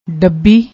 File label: "dabbi", Punjabi; ਡੱਬੀ